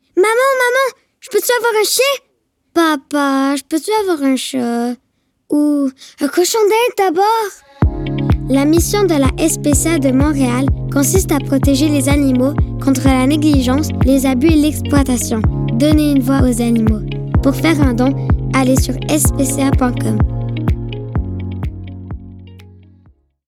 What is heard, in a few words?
demo vocal; SPCA